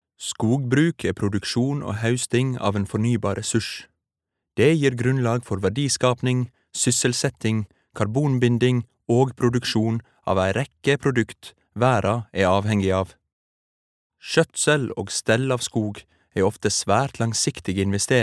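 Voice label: Norwegian informative, norwegian male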